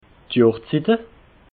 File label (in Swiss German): "johrszite", alsace